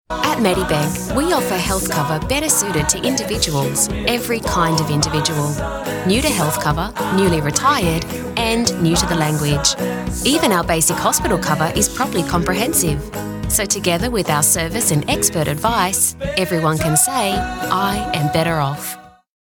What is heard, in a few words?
soft sell natural